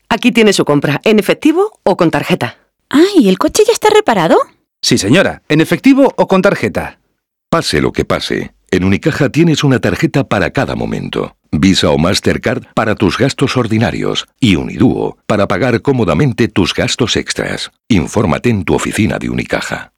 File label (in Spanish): locucion spot tarjetas; tarjetas unicaja; agencia elcuartel